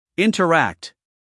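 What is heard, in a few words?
"interact", American English, male; 1000 hours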